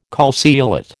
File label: "calceolate", American